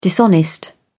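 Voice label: "dishonest", British English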